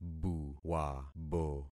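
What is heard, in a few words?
"buwabo", concatenated syllables; Affixing experiment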